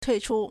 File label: "tui4chu1", Chinese